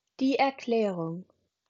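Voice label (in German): "Erklärung", German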